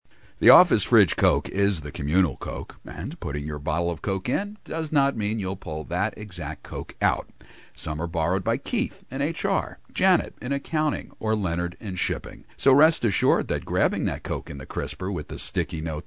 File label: Dry & Wry, commercials